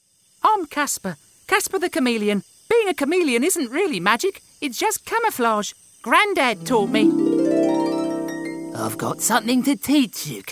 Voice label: Characters - UK characters